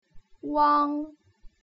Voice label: "uang", putonghua; 鼻韻母